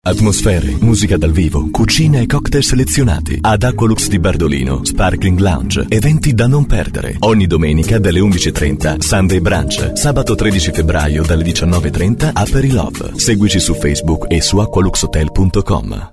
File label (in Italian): Spot